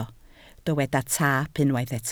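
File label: "taap" Welsh, spoken word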